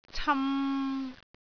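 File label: "cam3", Cantonese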